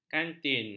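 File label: "kantin", Malay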